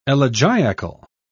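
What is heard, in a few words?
"elegiacal", British English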